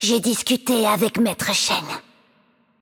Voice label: fr FR Game Outro, VO; Kennen; bloom minigame